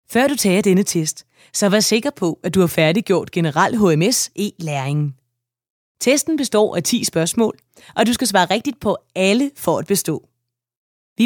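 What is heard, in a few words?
Danish elearning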